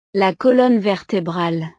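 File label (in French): "la colonne vertebrale", French; partes del cuerpo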